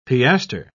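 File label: "piastre", British English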